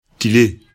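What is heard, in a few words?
"tile", Bambara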